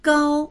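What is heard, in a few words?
"gao1", Mandarin Chinese; 高; 高い（高さが）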